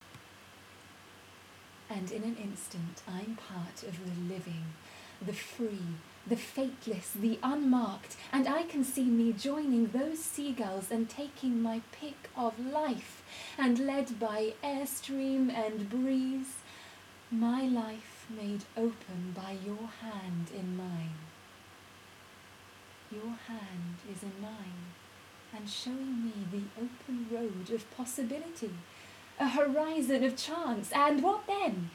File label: Warm RP